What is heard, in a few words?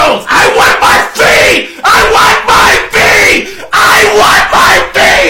yelling i want my feet; flight reacts